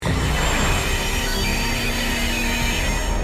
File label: cursed speech